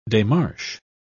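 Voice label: "demarche", British English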